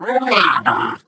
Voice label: impatient

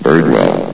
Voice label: "birdwell", vox; source engine; hl1; video games

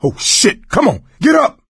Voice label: revive friend loud; voice; source engine; left 4 dead 2

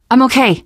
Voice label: getting revived, teen girl; survivor voice

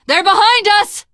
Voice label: watch out behind, teen girl voice; survivor voice

